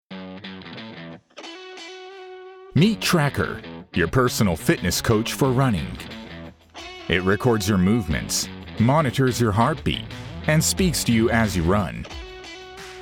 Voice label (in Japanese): 広告 CM カジュアル 知性的; ランニングウォッチCM; 番宣; トレーラー; PV